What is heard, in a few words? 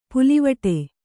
"ಪುಲ್ಲಿವೆಟು", Kannada